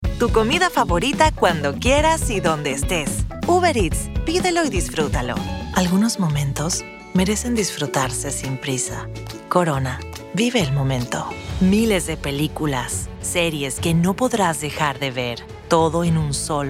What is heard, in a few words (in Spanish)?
Comercial Español Neutro LATAM